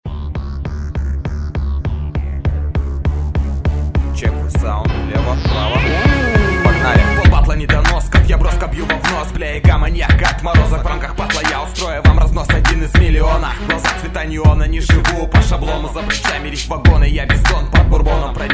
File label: battle rap